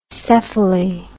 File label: "cephaly", English